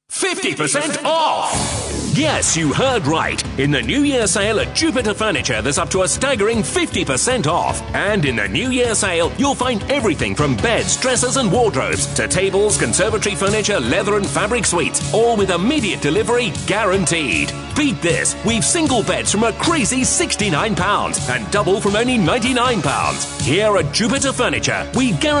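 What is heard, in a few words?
British commercial hard sell, mann; engelsk-uk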